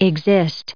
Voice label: "existing", us